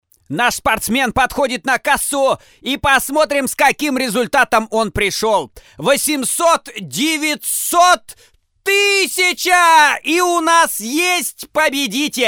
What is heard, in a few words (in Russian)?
kommentator; guberniev